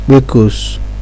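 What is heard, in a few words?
"kpekus", Nigerian Pidgin, male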